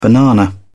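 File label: "banana", British English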